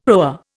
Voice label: "proa", Indian; Dictionary